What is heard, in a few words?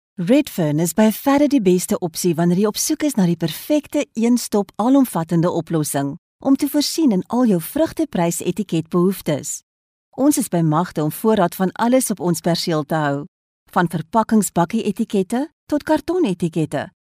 Corporate Afrikaans